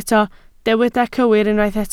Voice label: "cywir", Welsh

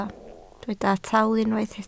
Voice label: "talu", Welsh

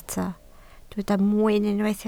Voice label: "mwyn", Welsh

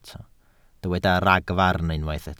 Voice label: "ragfarn", Welsh

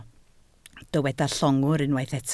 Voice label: "llongwr", Welsh

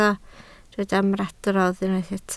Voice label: "ymadrodd", Welsh

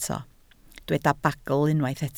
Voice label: "bagl", Welsh

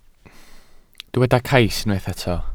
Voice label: "cais", welsh

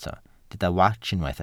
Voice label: "wats", Welsh

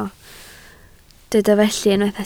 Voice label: "felly", Welsh

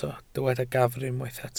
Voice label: "gafr", Welsh

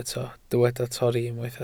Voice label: "torri", Welsh

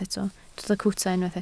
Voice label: "cwta", Welsh

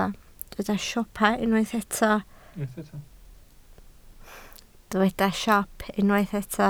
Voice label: "siop", Welsh

